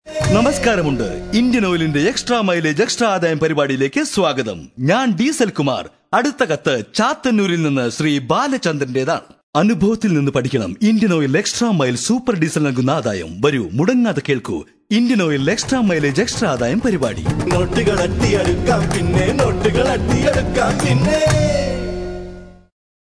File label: Malayalam Male